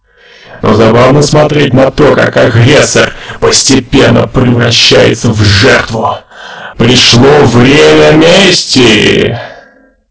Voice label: announcer

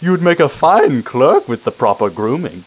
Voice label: upper answer, upperclass

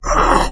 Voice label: hurt

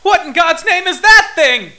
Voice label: fear

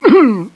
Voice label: commando pain

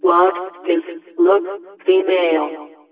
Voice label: WHAT IS LOOK FEMALE

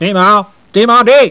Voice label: viet move quickly

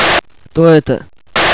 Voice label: radio

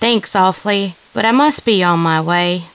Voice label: follow, woman

